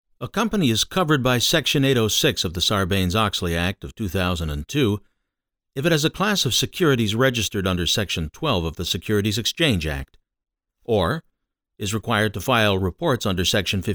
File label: E-learning Sarbox